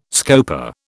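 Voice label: "scopa", British English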